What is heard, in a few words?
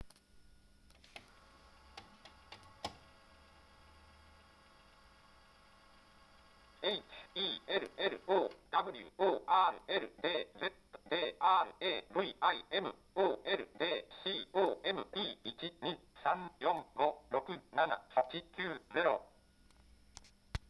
Voice Hello World Dictaphone